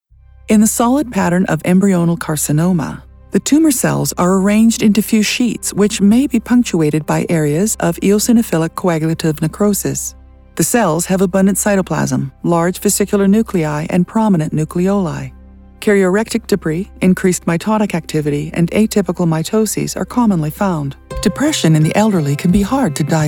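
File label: medical music, female voice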